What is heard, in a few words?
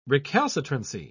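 "recalcitrancy", British English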